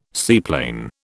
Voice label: "seaplane", British

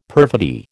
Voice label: "perfidy", American

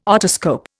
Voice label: "otoscope", Indian

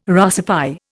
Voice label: "Russify", Indian

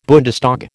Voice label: "Bundestag", American

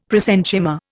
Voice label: "prosenchyma", Indian; Dictionary

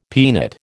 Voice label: "peanut", American

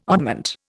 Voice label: "oddment", Indian

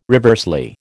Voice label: "reversely", American

